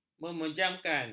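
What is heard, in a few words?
"memejamkan", Malay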